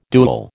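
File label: "duel", American English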